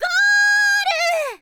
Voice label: "goal", girl; voice